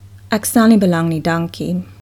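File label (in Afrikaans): "stel nie belang", Afrikaans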